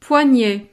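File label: "poignet", French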